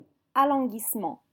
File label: "alanguissement", French